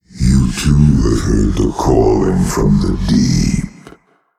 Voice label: Male Old God

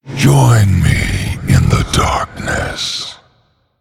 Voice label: Male Human Lose; Lich King

